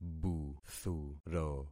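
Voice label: "buthuro", concatenated syllables; Affixing experiment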